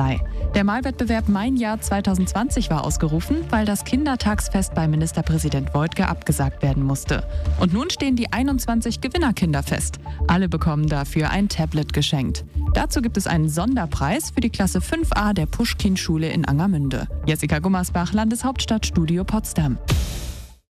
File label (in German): RADIO Nachrichten